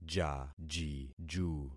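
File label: "jajiju", concatenated syllables; Affixing experiment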